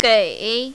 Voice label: "gei3", mandarin, female